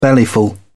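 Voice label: "bellyful", British English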